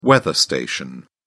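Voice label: "weather station", British English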